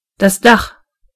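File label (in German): "roof", German; gcompris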